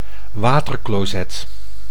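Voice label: "watercloset", Dutch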